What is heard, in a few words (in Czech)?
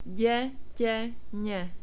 "detene", czech